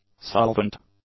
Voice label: "solvent", english; adjectives